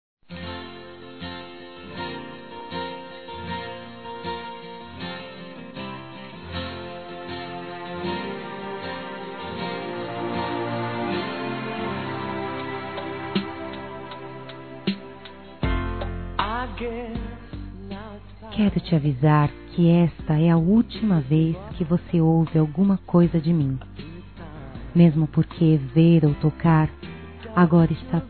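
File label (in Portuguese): Reclamando traição female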